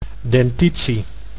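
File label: "dentitie", Dutch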